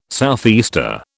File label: "southeaster", British